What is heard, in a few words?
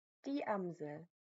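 "Amsel", German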